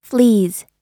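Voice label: "flees", American English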